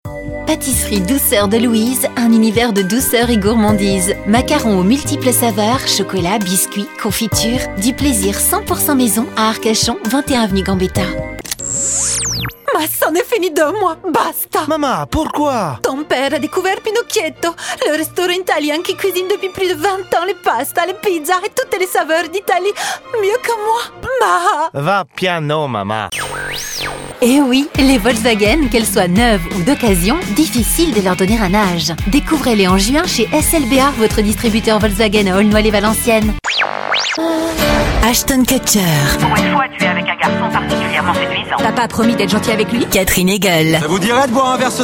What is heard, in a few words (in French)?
Voix francophone